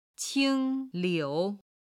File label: "qing liu", chinese